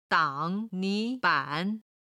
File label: "dang3 ni2 ban3", chinese